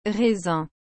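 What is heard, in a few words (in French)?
"raisin", French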